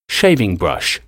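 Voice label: "shaving brush", English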